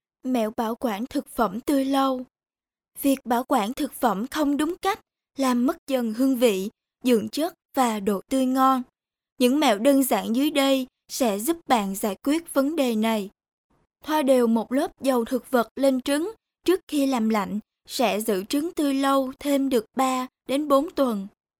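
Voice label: Vietnamese, female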